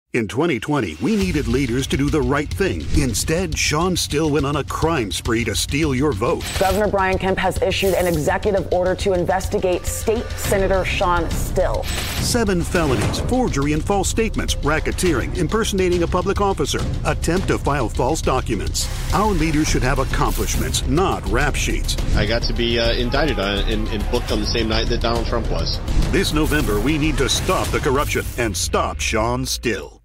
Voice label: attack ad; political; voiceover; Spree

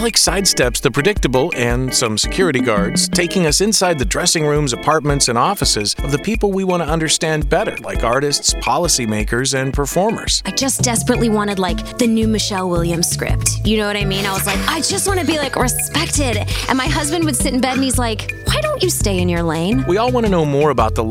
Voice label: Podcast Promo, voiceover

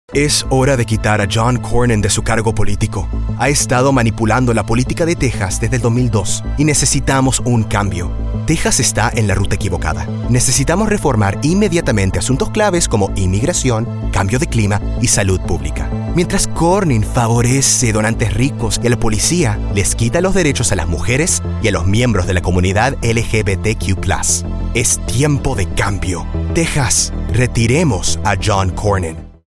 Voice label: Attack Spanish, voiceover for political attack ad

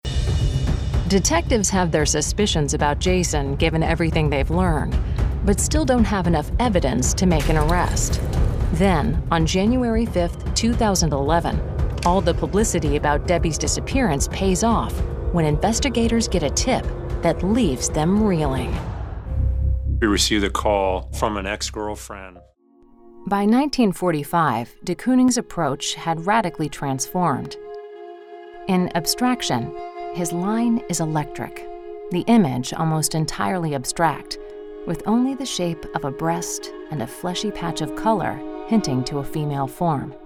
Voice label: In Show, Voiceover for In Show Narration

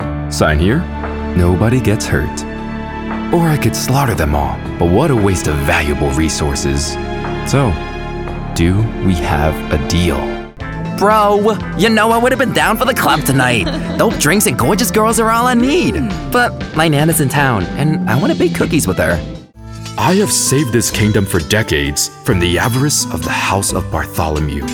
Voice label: Animation

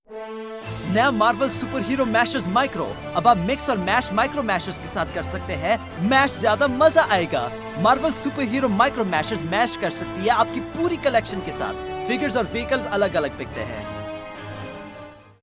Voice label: Commercial Hindi and Urdu; Voiceover for Commercials

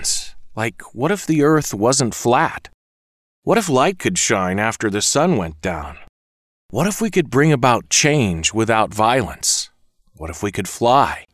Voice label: Explainer Video short

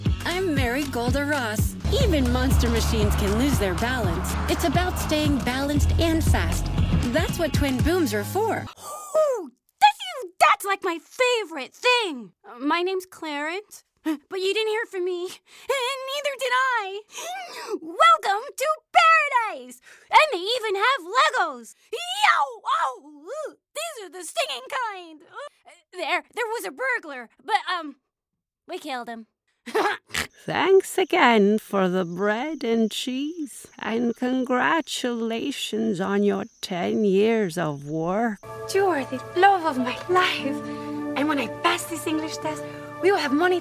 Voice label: Animation Character

